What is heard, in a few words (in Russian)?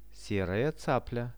ser caplia, Russian; серая цапля; birds; Какая это птица?